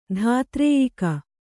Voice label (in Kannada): "ಧಾತ್ರೇಯಿಕ", Kannada